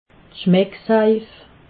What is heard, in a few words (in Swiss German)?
"schmecksaif", alsace